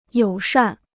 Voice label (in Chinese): "友善", Chinese